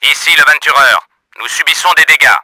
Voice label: CARRIER DAMAGED, french; Battle Engine Aquila; XBOX

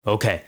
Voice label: "okay", man; XBOX

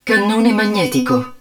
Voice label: hud rail gun, Italian; XBOX; Battle Engine Aquila

